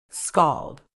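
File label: "scald", medical English, British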